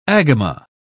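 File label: "agama", British English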